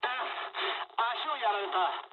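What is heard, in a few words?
damaged pilot mood med, speech japanese; War Thunder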